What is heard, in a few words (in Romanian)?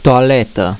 "toaleta", romanian; plaatsen